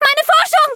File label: hit, de; Cookie Run